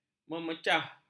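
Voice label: "memecah", Malay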